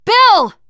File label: name, teen girl; bill